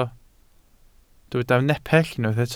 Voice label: "nepell", welsh

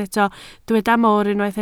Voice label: "mor", Welsh

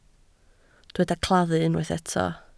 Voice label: "claddu", Welsh

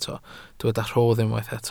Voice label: "rhodd", welsh

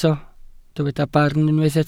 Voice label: "barn", welsh

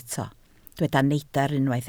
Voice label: "neidr", Welsh

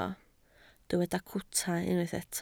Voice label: "cwta", Welsh

